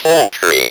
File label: "poultry", Speak & Spell